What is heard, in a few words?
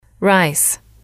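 "rice", English, female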